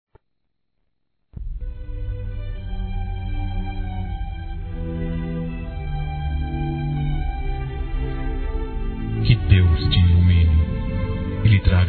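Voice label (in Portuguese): religiosa, masculino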